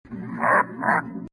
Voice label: taunt ah ah